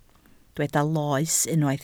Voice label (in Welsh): "loes", Welsh